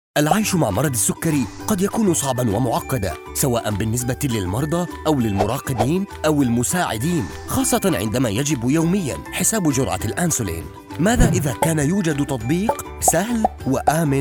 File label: in Arabic; Diabilive